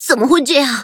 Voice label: defeat, zh-hant; cookie run